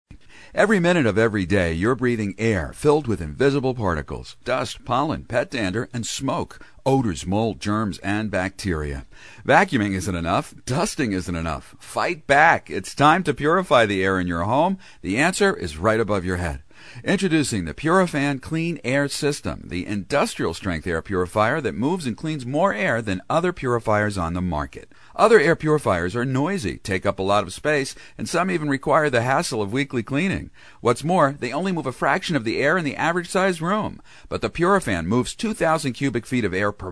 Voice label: INFORMERCIAL GUY; commercials